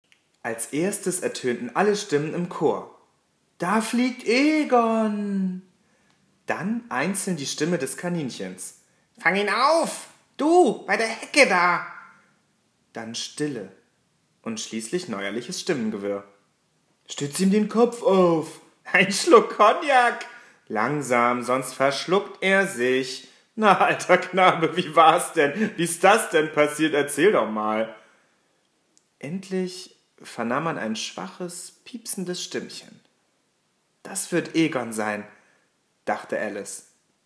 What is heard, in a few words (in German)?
hoerspiel; alice